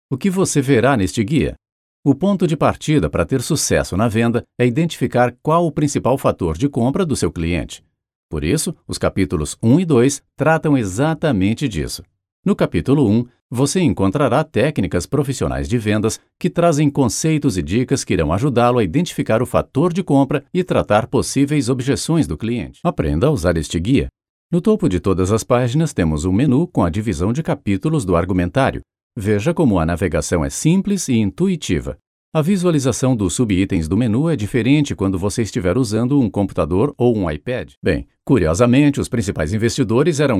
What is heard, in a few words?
portuguese brazilian e-learning; locutor profissional no Brasil